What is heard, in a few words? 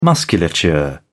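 "musculature", British English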